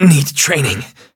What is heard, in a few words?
hit, en; cookie run